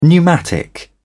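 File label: "pneumatic", British English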